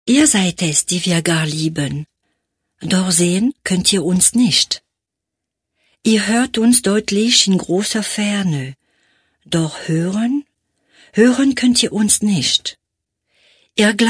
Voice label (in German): poetisch, frauen; sprecher